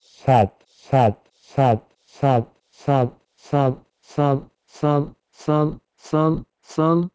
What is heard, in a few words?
Persian sad to Pashto sal